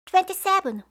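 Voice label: "twenty-seven", English; eng suuji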